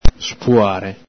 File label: "spuare", Veneto